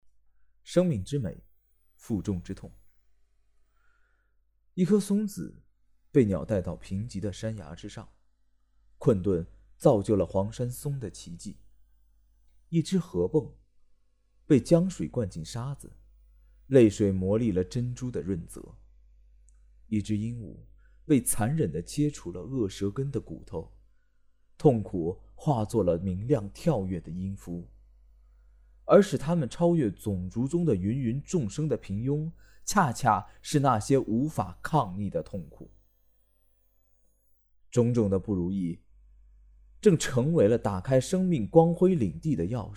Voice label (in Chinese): 朗读